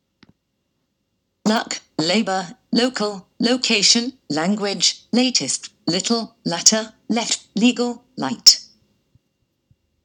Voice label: l start words uk